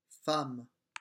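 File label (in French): "femme", French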